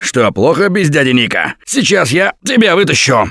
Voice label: Ledge Save, russian, Survivor Voice; Gambler

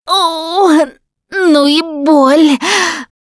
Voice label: going to die, russian; Producer

